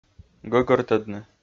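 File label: "гӧгӧртӧдны", Komi-Zyrian, male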